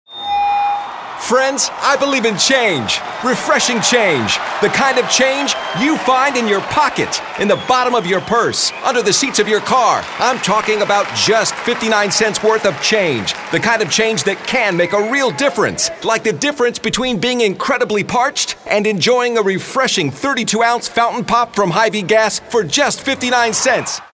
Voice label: upbeat

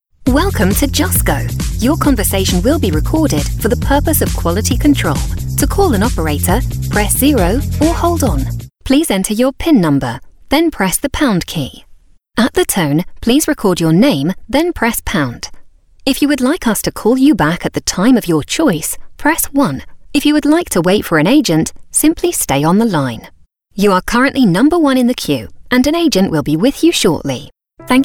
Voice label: IVR Reel

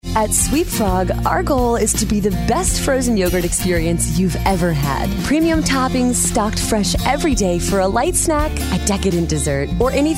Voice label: warm

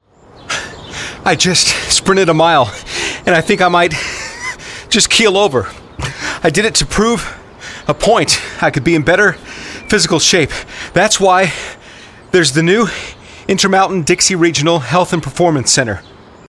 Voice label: guy next door

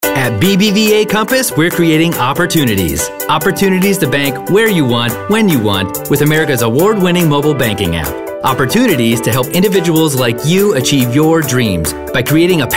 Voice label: Friendly

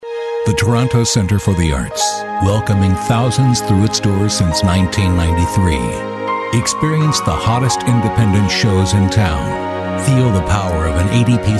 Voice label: soft spoken announcer

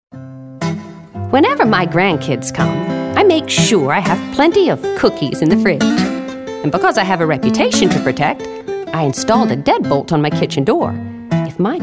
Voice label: grandmother

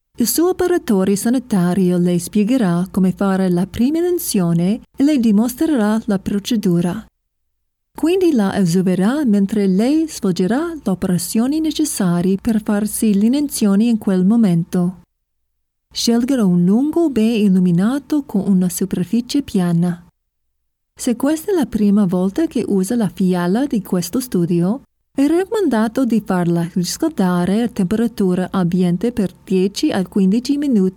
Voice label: Italian Narration

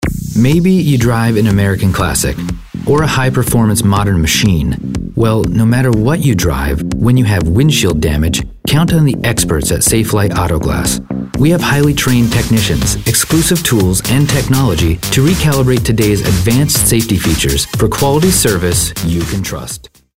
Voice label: Sophisticated

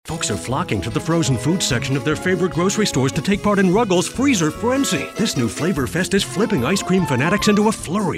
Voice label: perky

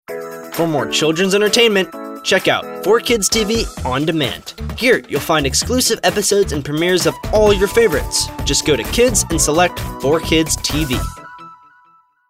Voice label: Kids TV

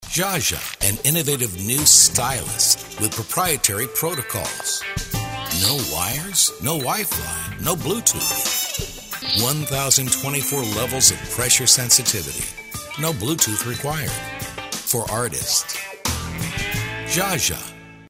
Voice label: cool and jazzy

